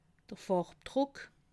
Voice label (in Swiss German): "farbdruck", alsace